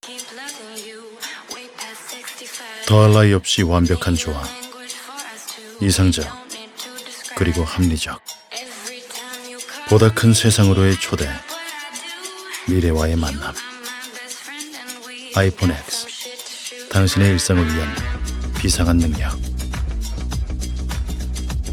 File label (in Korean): 묵직하고 시크한; 아이폰X